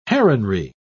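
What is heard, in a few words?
"heronry", British English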